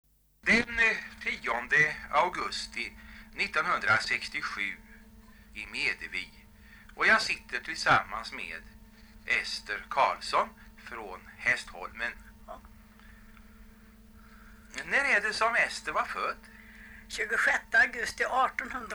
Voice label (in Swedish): dialekter; Folkminnen